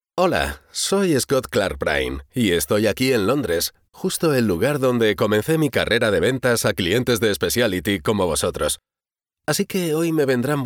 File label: Spanish Castilian dubbing